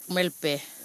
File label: female speaker